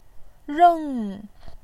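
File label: "reng", Mandarin Chinese, fourth tone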